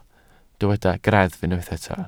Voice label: "greddf", Welsh

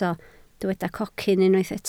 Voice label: "cocyn", Welsh

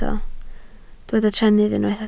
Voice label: "trennydd", Welsh

